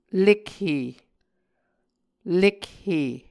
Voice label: "lekhe", Muscogee

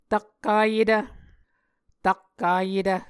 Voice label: "takkayetv", Muscogee; Mvskoke Words with Repeated Consonants; Onvkv Ohhvyayices; A Dictionary of Creek/Muskogee; SIL International

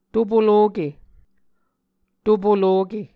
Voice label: "to poloke", Muscogee

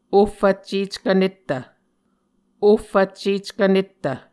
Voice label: "ohfvcceckv nettv", Muscogee; Mvskoke Words with Repeated Consonants; A Dictionary of Creek/Muskogee; SIL International

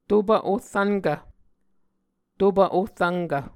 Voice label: "topv-ohrvnkv", muscogee

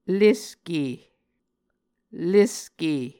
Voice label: "leske", Muscogee

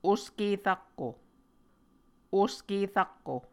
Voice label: "oske rakko", Muscogee; Mvskoke Words with Repeated Consonants; Onvkv Ohhvyayices

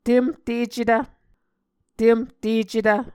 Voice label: "temhtecetv", Muscogee

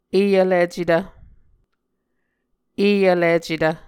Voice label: "e vlicetv", muscogee

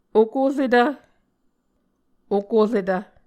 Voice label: "okoretv", Muscogee

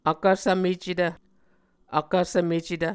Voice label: "vkvsvmecetv", Muscogee

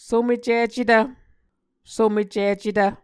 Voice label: "somecicetv", Muscogee

